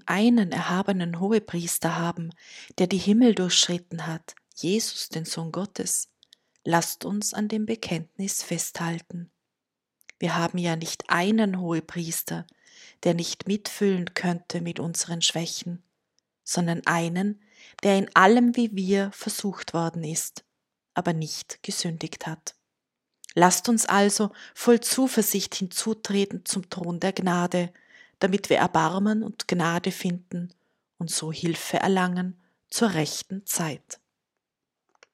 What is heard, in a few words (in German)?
Lesung; eine neue priesterordnung